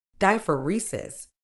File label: "diaphoresis", uk